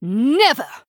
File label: Female Draenei